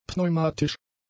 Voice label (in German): "pneumatisch", German; adjectives